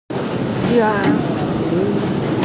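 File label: "ya2chi3", Mandarin Chinese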